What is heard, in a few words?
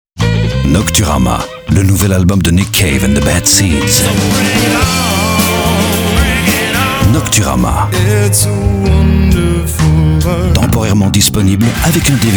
Radio Commercial